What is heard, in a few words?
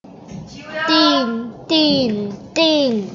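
"ding", spoken word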